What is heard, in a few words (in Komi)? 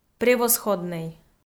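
"превосходнӧй" Komi, female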